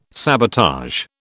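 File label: "sabotage", British English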